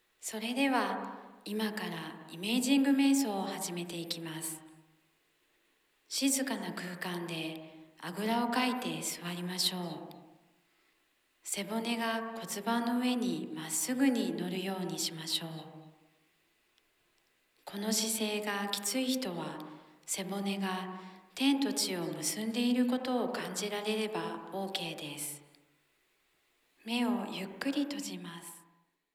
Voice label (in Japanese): イメージング瞑想